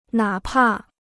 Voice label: "哪怕", Chinese

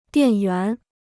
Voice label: "电源", Chinese

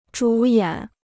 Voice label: "主演", Chinese

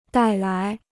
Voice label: "带来", Chinese

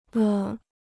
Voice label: "了", Chinese

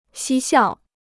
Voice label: "嬉笑", Chinese

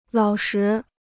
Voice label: "老实", Chinese

